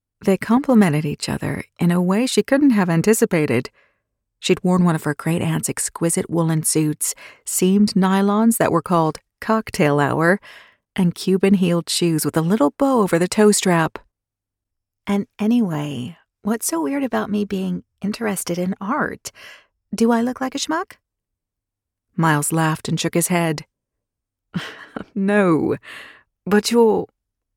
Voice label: Audiobook Fiction Female Male Dialogue American British